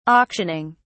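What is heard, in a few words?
"auctioning", British English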